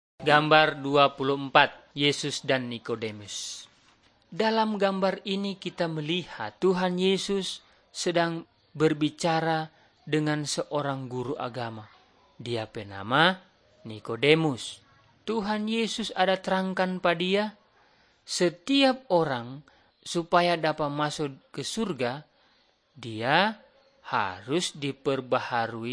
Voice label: malay manado; good news